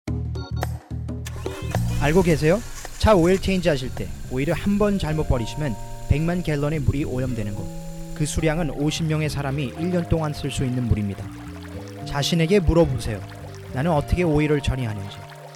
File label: Apple Korean